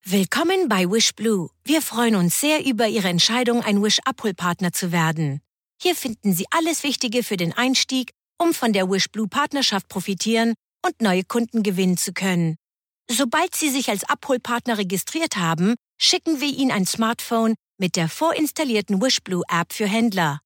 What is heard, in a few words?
German promos